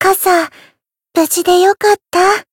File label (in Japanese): Voice win jp; yukino